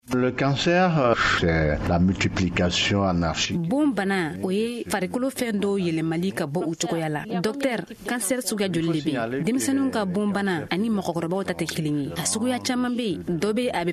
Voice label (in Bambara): magazine bamanankan